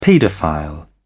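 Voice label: "paedophile", British English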